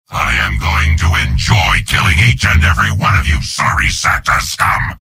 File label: soldier taunts; tf2; garrysmod; mvm